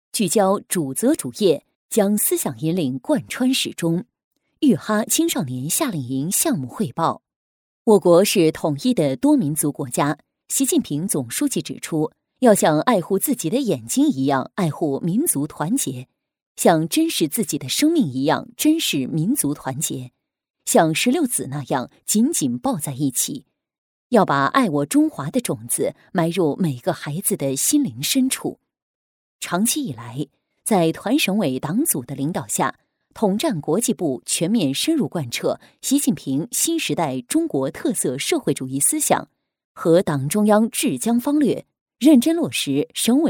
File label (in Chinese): 女 新闻 青少年夏令营 正式